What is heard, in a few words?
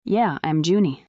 fem